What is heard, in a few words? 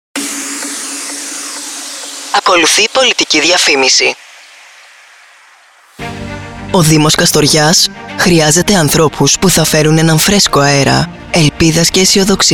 Radio Spot